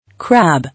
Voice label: "Crab" English

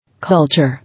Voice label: "Culture", English

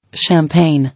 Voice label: "Champaign", English

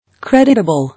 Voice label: "Creditable", English

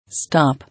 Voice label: "Stop", English

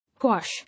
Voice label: "Wash", English

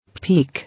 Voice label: "Peak", English

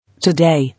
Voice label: "Today", English

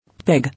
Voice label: "Pig", English

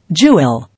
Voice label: "Jewel", English

